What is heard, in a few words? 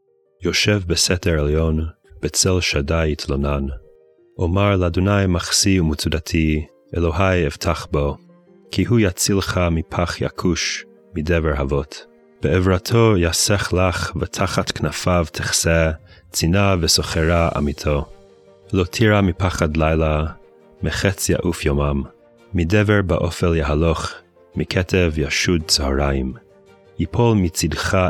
poetry and prayer psalm hebrew; Meir Soloveichik